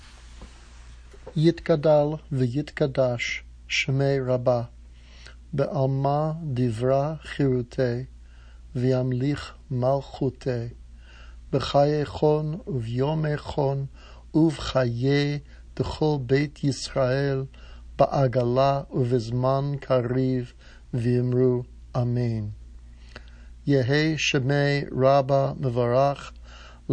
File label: chatzi kaddish, spoken